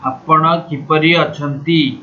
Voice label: how are you odia; Oriya; ଆପଣ କିପରି ଅଛନ୍ତି?; Āpaṇa kipari achanti?